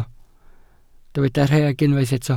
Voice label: "rheg", Welsh